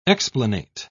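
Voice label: "explanate", English, British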